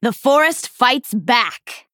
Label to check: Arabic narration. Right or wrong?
Wrong